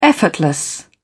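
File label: "effortless", British English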